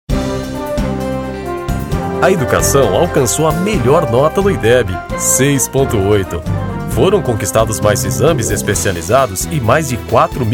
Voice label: portuguese brazilian political ads; Portugués (Brasil); anuncios políticos

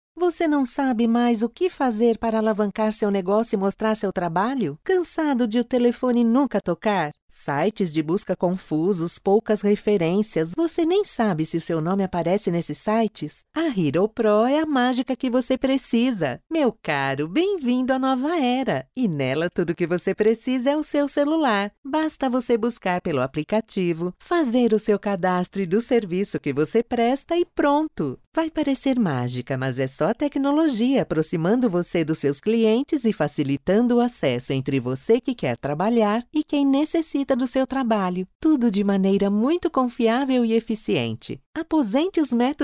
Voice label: portuguese brazilian promos

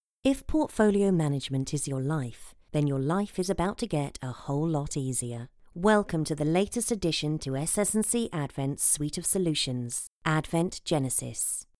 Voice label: english british corporate videos

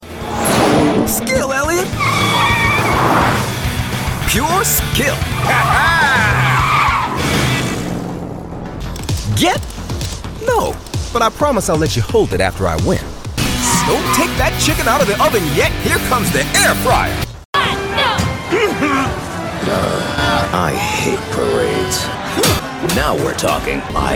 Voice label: english american animation

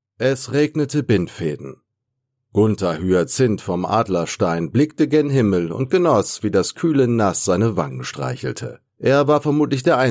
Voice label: German audiobooks

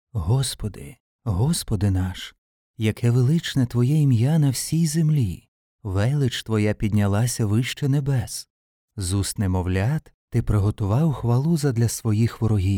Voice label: ukrainian audiobooks

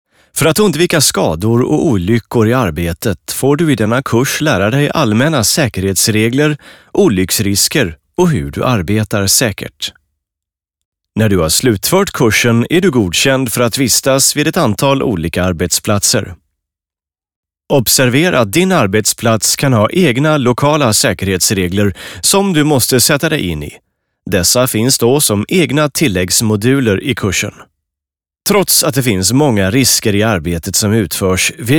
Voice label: swedish e-learning

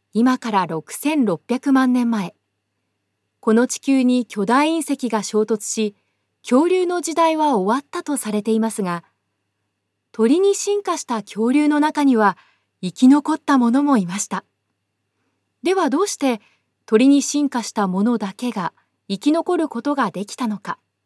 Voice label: japanese narration

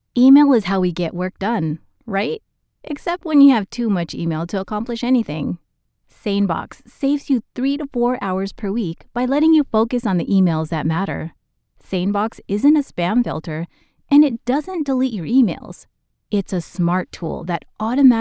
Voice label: english american narration; Filipina, Asian-American professional voice

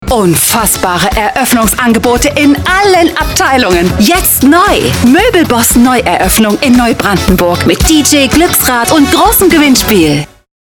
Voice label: german promos, voice actors; doubleuse professionnelle allemande; Promotions

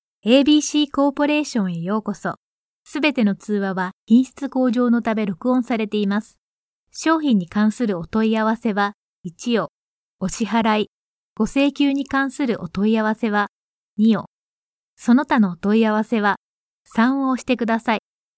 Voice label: japanese

